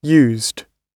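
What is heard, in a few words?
"used", British English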